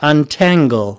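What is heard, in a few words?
"untangle", US English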